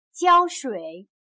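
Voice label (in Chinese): "浇水", Chinese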